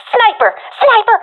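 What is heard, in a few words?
sees sniper, professional female; vo; Counter Strike Model Pack; CS:GO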